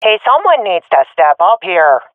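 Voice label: request leader, swat female; Counter Strike Model Pack; CS:GO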